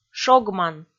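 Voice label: "шогман", Komi, female; kpv audio dict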